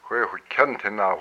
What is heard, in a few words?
"my mind", hupa